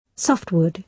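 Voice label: "softwood", British English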